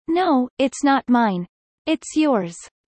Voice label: fast; studying abroad